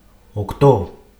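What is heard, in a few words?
"okto" Greek, male, OCTO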